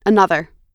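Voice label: "another", American English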